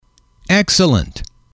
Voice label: "excellent", M

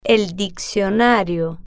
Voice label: "el Diccionario", spanish

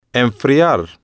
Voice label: "enfriar", Spanish

